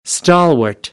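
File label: "stalwart", American, English; AmericanBritish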